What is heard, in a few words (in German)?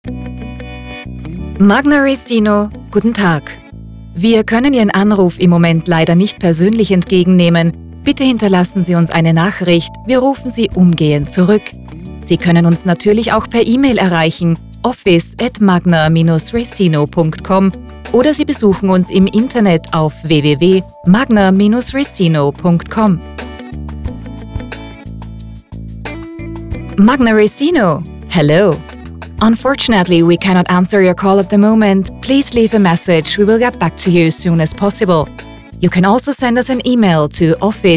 Anrufbeantworter